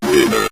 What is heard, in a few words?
"winner", Synth Voice; Kenney's Sound Pack